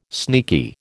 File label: "sneaky", American